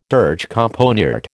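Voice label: "durchkomponiert", American English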